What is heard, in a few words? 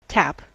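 "tap", US English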